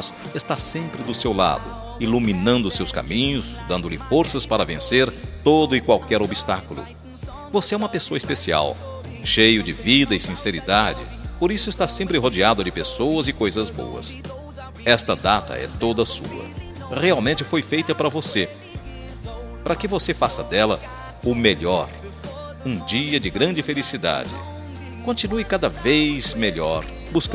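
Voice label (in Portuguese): ANIV AMIGO male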